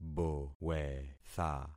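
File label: "bowetha", concatenated syllables; Affixing experiment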